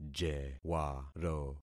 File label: "jewaro", concat syllables; Affixing experiment